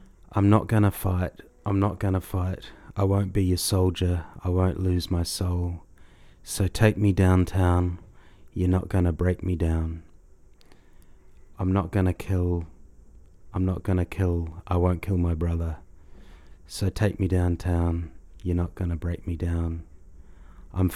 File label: Recite